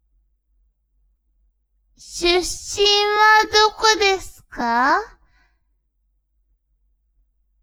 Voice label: woman